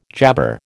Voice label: "jabber", American